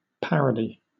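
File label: "parody", British English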